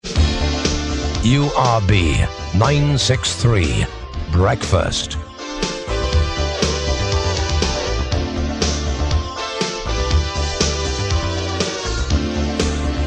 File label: breakfast, synth bed